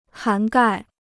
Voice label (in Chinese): "涵盖", Chinese